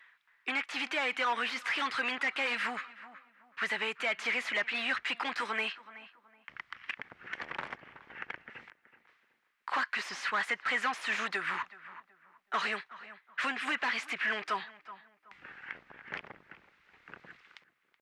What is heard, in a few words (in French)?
Voix Radio Egalisation; Babel